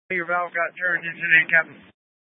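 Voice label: Incident Tac 2, Scanner audio recording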